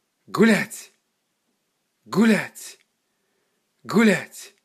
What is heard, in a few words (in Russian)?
"Gulyatj", russian dog commands